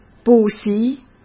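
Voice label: "补习", Mandarin Chinese; chinese flashcards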